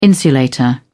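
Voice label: "insulator", British English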